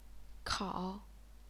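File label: "kao", Chinese, third tone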